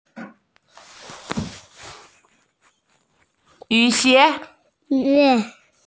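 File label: "yu3xie2" Mandarin Chinese, female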